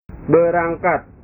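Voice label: "berangkat", Indonesian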